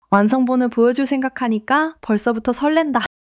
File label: excitement